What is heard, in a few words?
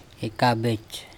"eka bec", andamanese